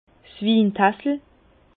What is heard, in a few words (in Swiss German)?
"wintassel", Bas Rhin; vin; tasse à vin tastevin